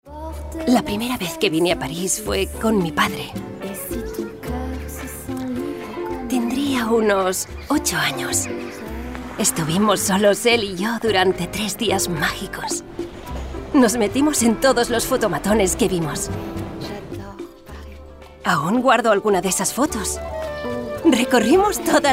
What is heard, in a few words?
female spanish jewelry comercial; ideasonora